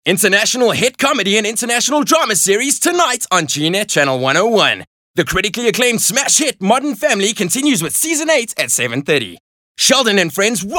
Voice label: English Hardsell